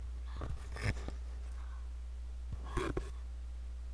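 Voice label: "low", spoken word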